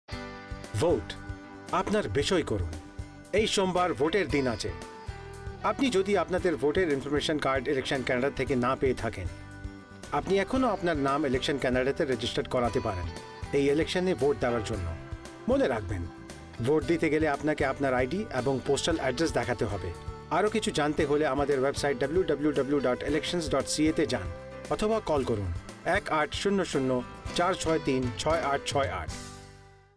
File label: election bengali